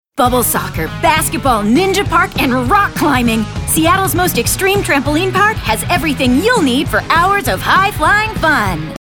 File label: Energetic